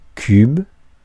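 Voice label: "cube", French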